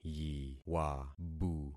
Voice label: "yiwabu", concat syllables; Affixing experiment